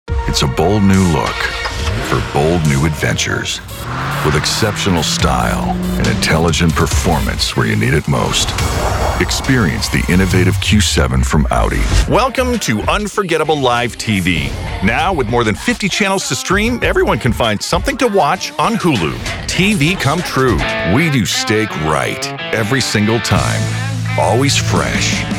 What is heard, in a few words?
Commercials